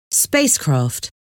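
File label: "spacecraft", English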